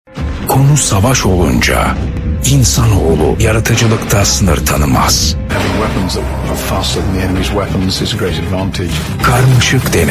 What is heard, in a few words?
turkish movie trailers